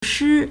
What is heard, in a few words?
"shi1", Chinese